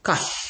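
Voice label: "cash", Nigerian Pidgin, male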